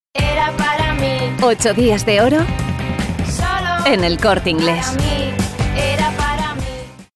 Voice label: female spanish sales comercial